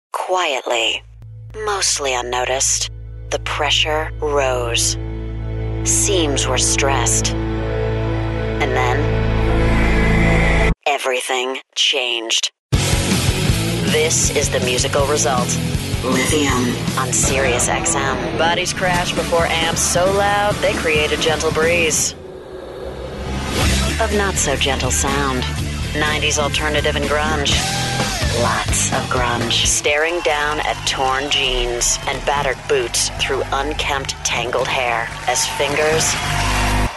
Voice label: english american radio imaging